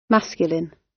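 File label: "masculine", British English; Design and innovation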